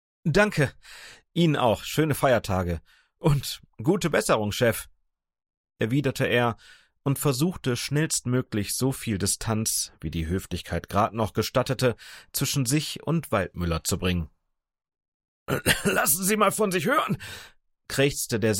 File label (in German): Hoerbuch Thriller Hoerprobe; Silentium